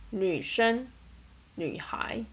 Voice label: female teenager